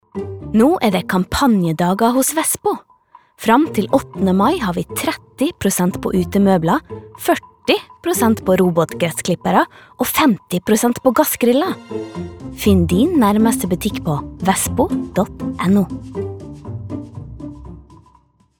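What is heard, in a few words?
Norwegian reklame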